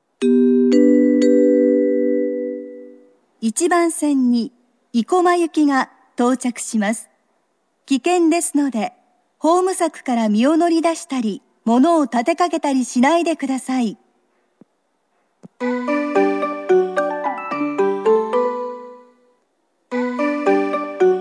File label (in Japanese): 生駒行き, 中央線車内放送・駅放送; 生駒行き2